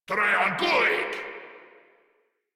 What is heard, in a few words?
Attack, Grineer Male; Warframe